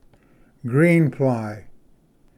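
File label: "green fly", English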